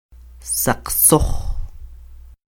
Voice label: "säqsöj", Quiché; blancuzco; whitish